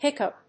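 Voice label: "hiccough", English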